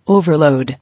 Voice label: "Overload", English

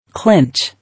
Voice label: "Clinch", English

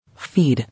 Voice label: "Feed", English

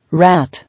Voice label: "Rat", English

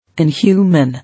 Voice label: "Inhuman", English

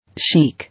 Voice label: "Chic", English

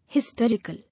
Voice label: "Hysterical", English

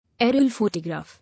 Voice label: "Aerial photograph", English

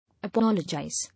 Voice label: "Apologize", English

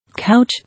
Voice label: "Couch", English

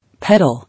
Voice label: "Peddle", English

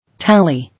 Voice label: "Tally", English

